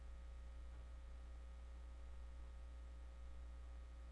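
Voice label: "lead", spoken word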